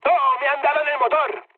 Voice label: damaged engine, mood high, speech; War Thunder